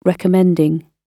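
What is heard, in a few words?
"recommending", British English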